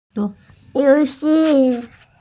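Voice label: "you2xi4", Mandarin Chinese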